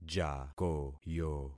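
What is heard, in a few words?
"jakoyo", concatenated syllables; Affixing experiment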